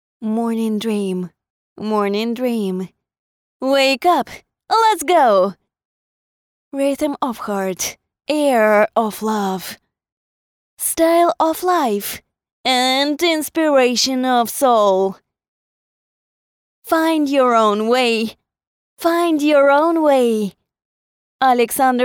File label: Jingle Voice English Version